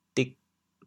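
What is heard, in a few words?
"dig4", Teochew, chaoyang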